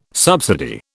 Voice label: "subsidy", British

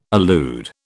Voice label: "allude", British

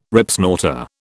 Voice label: "Ripsnorter", British

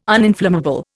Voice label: "uninflammable", Indian

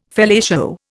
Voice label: "fellatio", Indian

